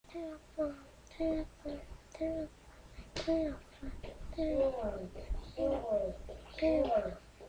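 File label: "telephone", spoken word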